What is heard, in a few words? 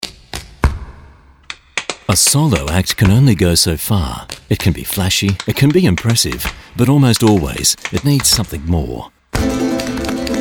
Radio Commercial